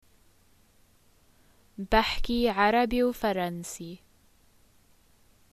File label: i speak, arabic levantine